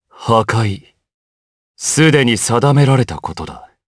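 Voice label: voice, Japanese; DarkKasel; kingsraid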